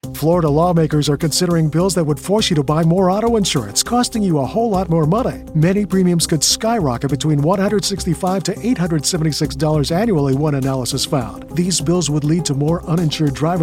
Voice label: Radio Ad; WFLA